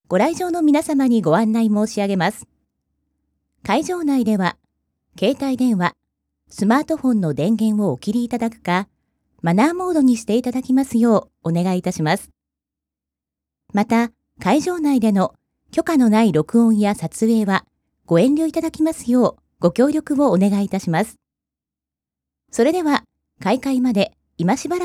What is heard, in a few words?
seminar moderator